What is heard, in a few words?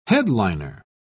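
"headliner", British English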